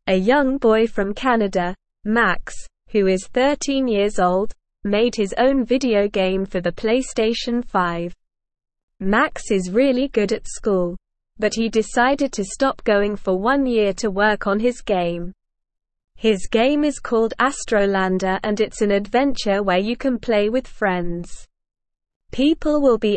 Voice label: English Lower Intermediate SLOW Reading; English Newsroom